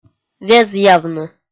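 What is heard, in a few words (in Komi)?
"везъявны", Komi, female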